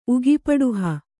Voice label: "ಉಗಿಪಡುಹ", Kannada